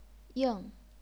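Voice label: "ying", chinese pinyin, fourth tone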